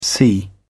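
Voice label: "see", British English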